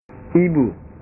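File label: "ibu", Indonesian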